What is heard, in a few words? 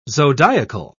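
"zodiacal", British English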